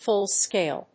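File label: "full-scale", English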